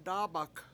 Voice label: "dAAbak" weaving willow, Washo